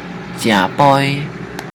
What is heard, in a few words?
"right", teochew